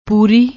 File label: "poori", Punjabi